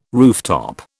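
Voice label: "rooftop", British English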